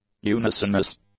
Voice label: "unisonous", English; adjectives